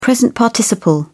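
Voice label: "present participle", British English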